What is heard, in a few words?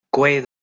"kweth", Proto Germanic